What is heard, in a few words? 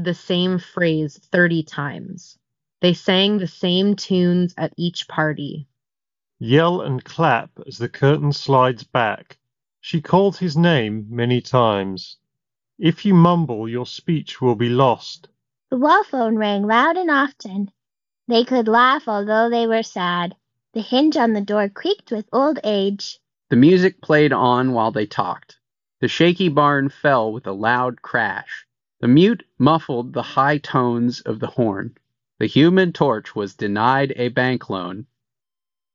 Ideal microphone sample; Shokz Open Fit